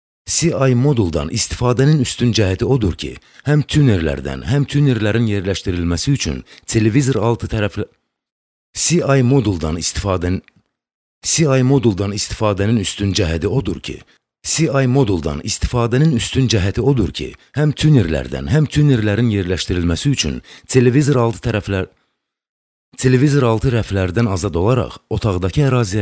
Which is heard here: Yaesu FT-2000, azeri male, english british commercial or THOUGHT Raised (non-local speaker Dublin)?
azeri male